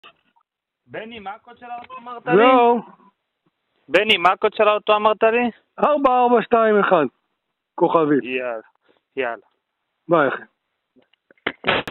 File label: Call recording